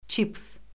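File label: "çips", Azerbaijani; Orfoqrafiya Lüğəti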